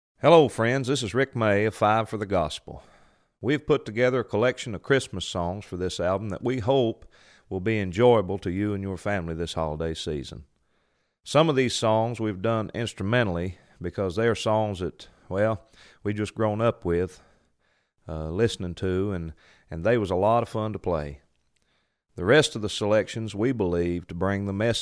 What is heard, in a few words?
Narration